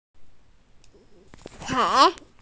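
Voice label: "pei2", Mandarin Chinese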